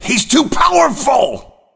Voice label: Exec Generic, Executioner Orc Male

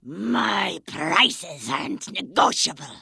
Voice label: Vrykul Female Warrior Vendor

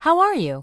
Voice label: Human Female Standard NPC Greeting

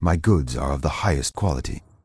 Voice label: Night Elf Male Standard Vendor

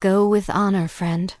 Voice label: Human Female Official NPC Farewell

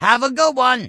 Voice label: Goblin Male Gruff NPC Farewell

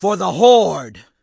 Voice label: Orc Female Standard NPC Farewell

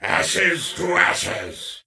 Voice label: Death Knight Male Med Farewell

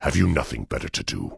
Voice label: Tauren Male Warrior NPC Pissed

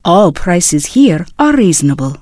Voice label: Draenei Female Military Vendor

